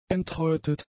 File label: "enthäutet", German; adjectives